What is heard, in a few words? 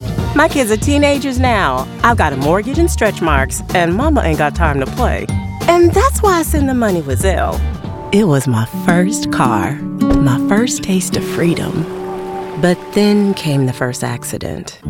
Commercial